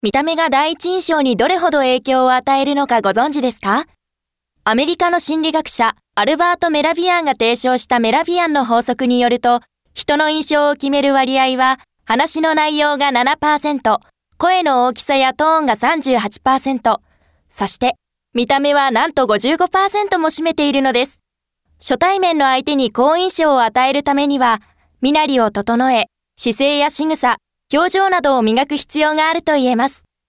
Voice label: narration